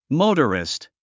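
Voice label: "motorist", English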